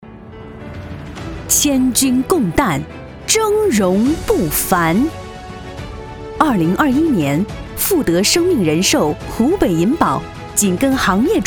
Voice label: 专题 颁奖 颁奖词, 女国; 湖北银保

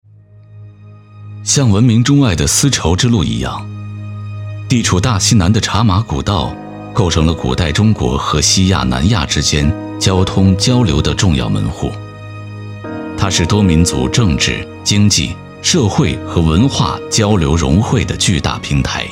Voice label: 男国 纪录片 人文历史; 茶马古道

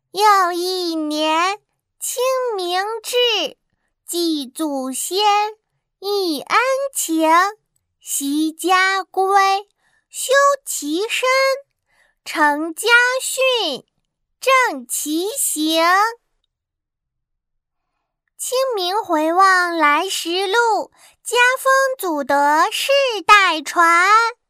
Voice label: 动画 童声 女童北京卫视女福娃

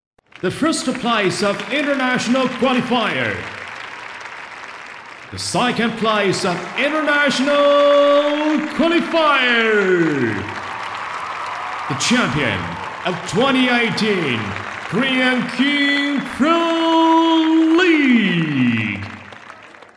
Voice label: 男英 外语 国内英语 英文比赛主持人样音

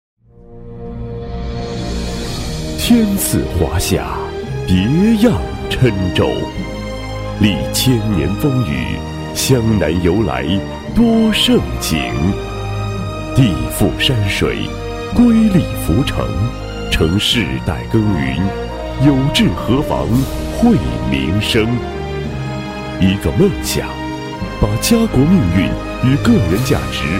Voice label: 男国 专题 汇报 舒缓; 精准扶贫电商平台汇报片

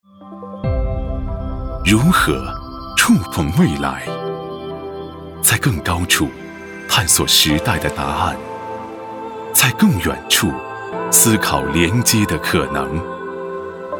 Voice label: 宣传片 企业 浑厚, 男国

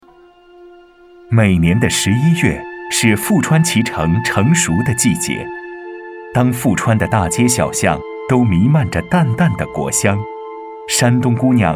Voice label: 纪录片, 男国; 舌尖; 舌尖上的富川脐橙

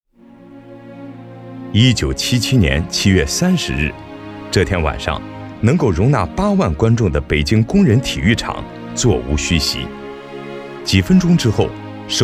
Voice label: 专题 政府 自然, 男国; 国家记忆

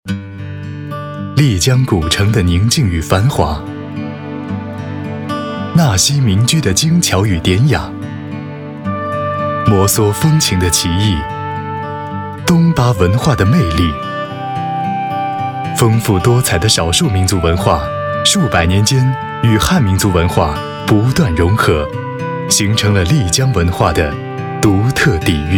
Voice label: 宣传片 旅游 唯美风光 亲切, 男国; 丽江

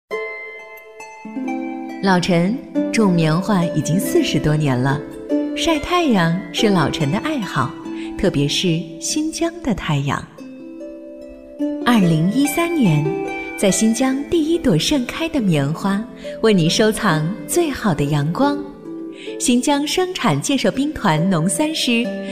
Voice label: 广告 互联网 网店广告 讲述

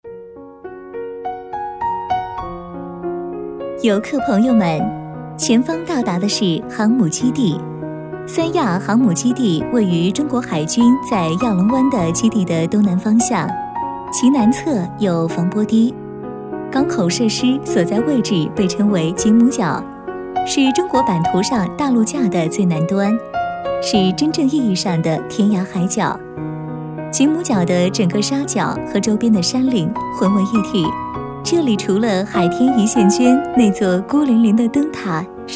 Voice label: 多媒体 语音导游 导游词; 三亚; 三亚导游词

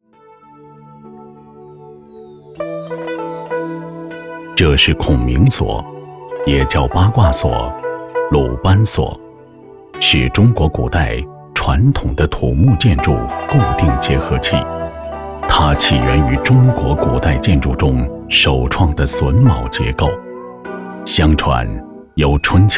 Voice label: 男国 纪录片 自然科教; 孔明锁

